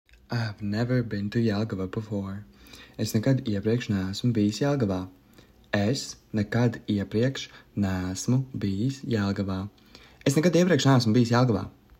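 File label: Ive never been to Jelgava before, Latvian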